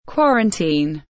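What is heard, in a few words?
"quarantine", English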